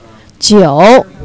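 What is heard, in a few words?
"nine", Chinese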